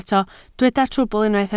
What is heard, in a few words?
"trwbl", Welsh